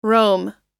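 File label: "roam", US English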